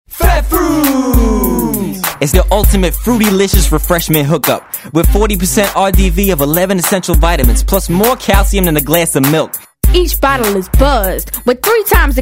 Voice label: Radio Spot